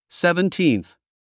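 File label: "seventeenth", English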